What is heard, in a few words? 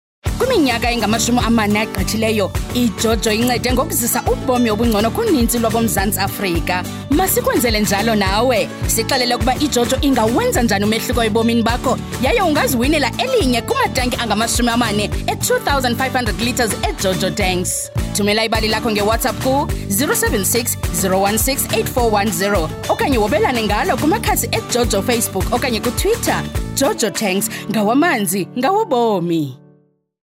Radio ad; Jojo Tanks